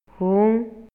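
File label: Maya, male; PERCEPCIÓN SENSORIAL; INALI; MayaYuc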